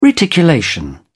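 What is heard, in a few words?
"reticulation", English, British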